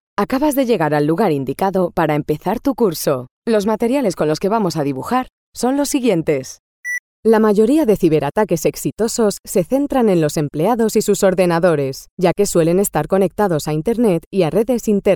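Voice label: Spanish, female, e-learning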